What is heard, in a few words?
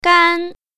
"gan1", Mandarin Chinese; 幹; One-Character Adjectives; Tone Adjectives